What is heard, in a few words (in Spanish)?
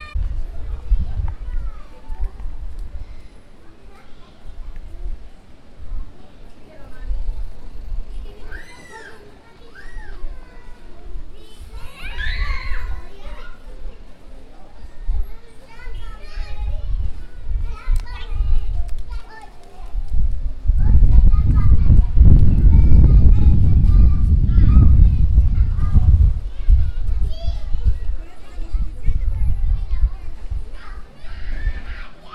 ninos hablando